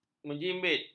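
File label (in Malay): "menjimbit", Malay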